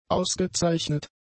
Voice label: "ausgezeichnet", German; adjectives

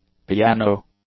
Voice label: "piano", English; nouns

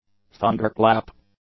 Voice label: "thunderclap", English; nouns

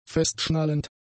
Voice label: "festschnallend", german; adjectives

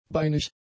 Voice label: "beinig", German; adjectives

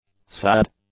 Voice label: "sad", English; adjectives

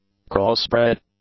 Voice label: "crossbred", English; adjectives

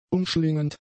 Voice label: "umschlingend", German; adjectives

